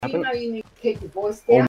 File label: voice down